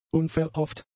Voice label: "unverhofft", German; adjectives

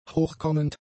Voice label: "hochkommend", German; adjectives

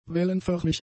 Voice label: "wellenförmig", German; adjectives

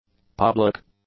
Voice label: "public", english; adjectives

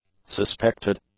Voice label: "suspected", English; adjectives

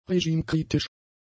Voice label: "regimekritisch", German; adjectives

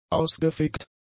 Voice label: "ausgefegt", German; adjectives

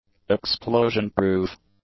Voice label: "explosion-proof", English; adjectives

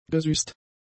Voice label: "gesüßt", German; adjectives

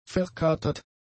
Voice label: "verkatert", German; adjectives

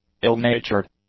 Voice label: "ill-natured", English; adjectives

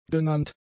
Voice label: "genannt", German; adjectives

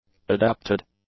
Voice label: "adapted", English; adjectives

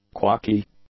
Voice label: "quaky", English; adjectives

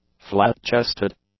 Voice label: "flat-chested", english; adjectives

